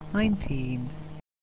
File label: "Nineteen", English